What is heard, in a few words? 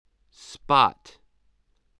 "spot", voice